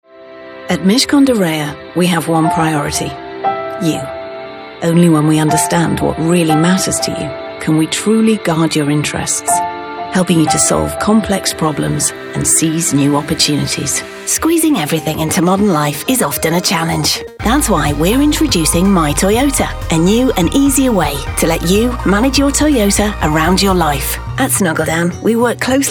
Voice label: uk corporate, female; english-uk